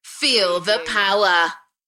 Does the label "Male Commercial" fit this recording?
No